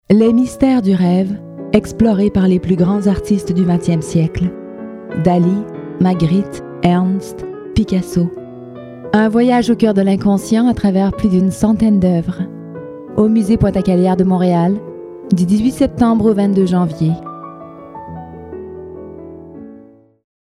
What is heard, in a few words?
Narration